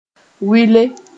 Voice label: "wɩle", Moore